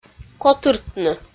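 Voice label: "котыртны", Komi, female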